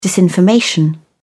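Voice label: "disinformation", British English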